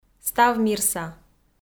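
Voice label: "ставмирса", Komi, female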